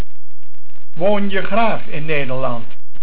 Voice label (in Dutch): "Woon", Dutch